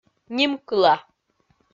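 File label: "нимкыла", Komi, female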